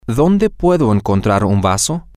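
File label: where can I find a cup, Spanish, male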